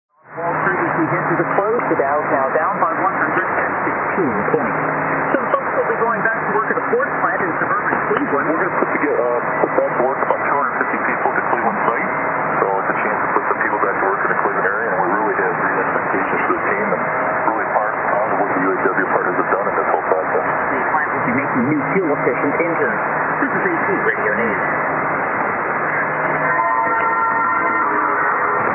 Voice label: 4319kHz USB; AFN-Diego Garcia